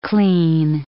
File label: "clean", English